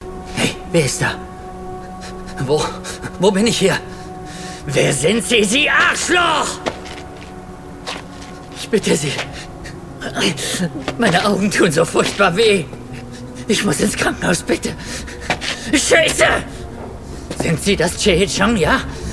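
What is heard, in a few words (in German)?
Glory, bedrohlich / ruhig / dominant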